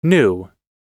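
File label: "knew", American English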